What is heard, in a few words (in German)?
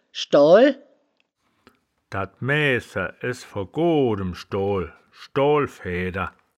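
"schdoal", wittlicher woerterbuch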